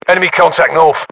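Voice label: threat infantry north, battlechatter British; english; uk; localized english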